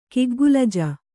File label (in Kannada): "ಕಿಗ್ಗುಲಜ", Kannada